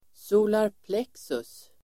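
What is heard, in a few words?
"solarplexus", Swedish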